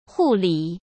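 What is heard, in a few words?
"护理", Mandarin Chinese; hsk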